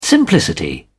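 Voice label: "simplicity", British English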